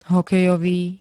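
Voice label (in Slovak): "hokejový", Slovak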